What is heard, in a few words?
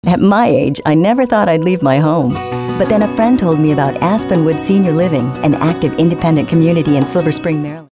real mature